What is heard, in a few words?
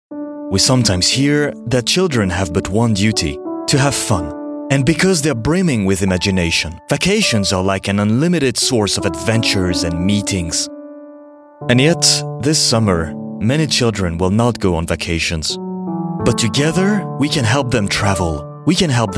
french male charity read en